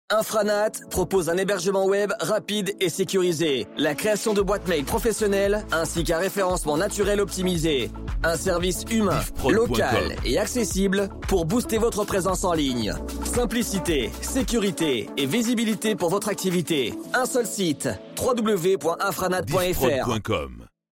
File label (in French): Spot Hebergement web, publicite; Infranat